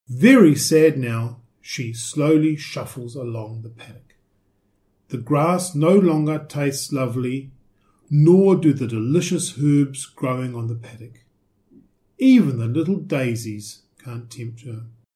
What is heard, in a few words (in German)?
englisch